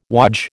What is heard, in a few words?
"wodge", American